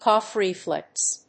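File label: "cough reflex", English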